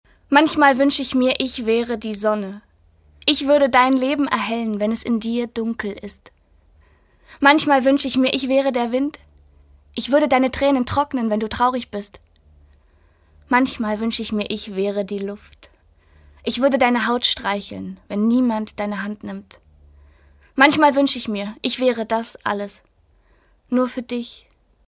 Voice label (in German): Freundlich